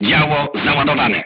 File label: voice message charged, crew tanks polish